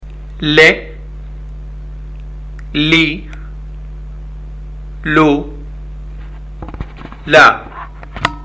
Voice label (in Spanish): LAMUN CON VOCALES, ARABE; ARABE A1; ALFABETO; LETRAS CON VOCALES